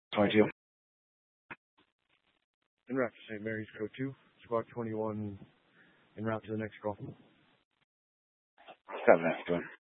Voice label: Grand Junction Fire Department Dispatch